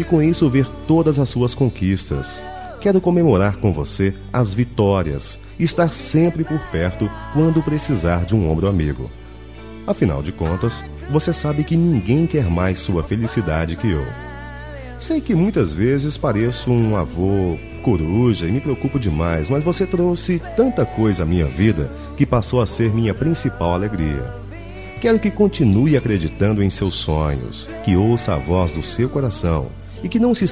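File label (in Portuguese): Aniversário de Neto, male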